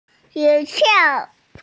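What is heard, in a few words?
"xue2xiao4", Mandarin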